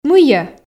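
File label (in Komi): "мыйӧ", Komi, female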